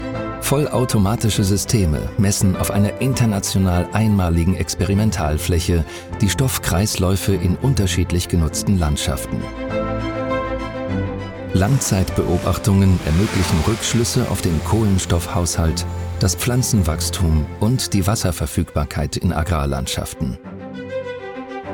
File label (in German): Imagefilm, DE; ZALF